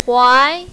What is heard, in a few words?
"huai2", mandarin, female; 踝